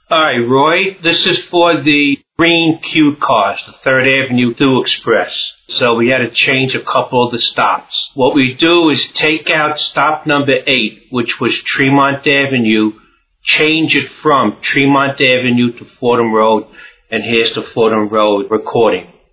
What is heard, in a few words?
giving station stop instructions